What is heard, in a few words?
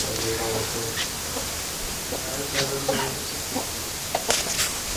womans voice guys walk